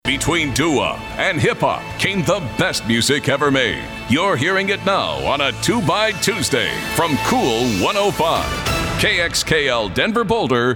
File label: Radio Promo